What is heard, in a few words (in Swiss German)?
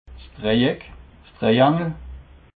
"dreieck", alsace